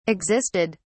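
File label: "existed", British English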